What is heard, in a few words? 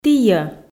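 "тійӧ", Komi, female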